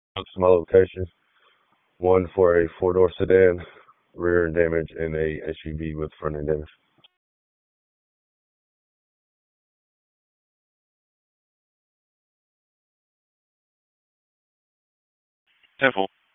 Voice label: Police Northeast District Disp, p25